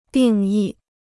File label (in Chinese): "定义", Chinese